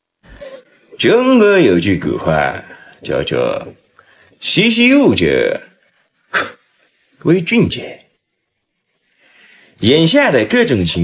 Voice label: Vocals; 魏骏杰; 识时务者为俊杰